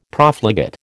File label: "Profligate", American